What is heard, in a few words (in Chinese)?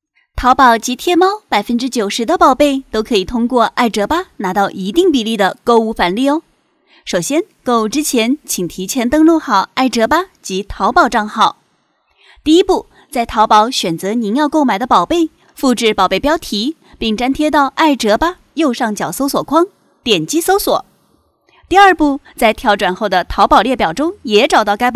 广告 (甜美可爱), 国语女声配音, 年轻; 爱折吧